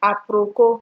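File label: "aproko", Nigerian Pidgin, female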